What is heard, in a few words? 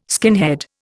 "skinhead", Indian